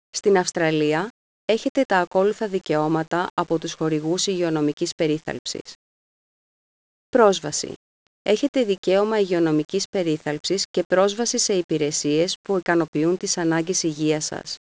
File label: Greek; Australian Charter of Healthcare Rights